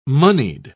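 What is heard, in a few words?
"monied", English, British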